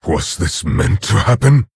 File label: Orc Emote; Nerzhul; Trigger Frostmourne